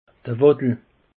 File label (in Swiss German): "waddel", alsace; peche